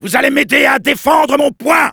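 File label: help me defend, French; Soldier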